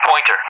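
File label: "Pointer", English; Callsign; DCS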